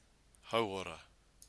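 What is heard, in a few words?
"hauora", Maori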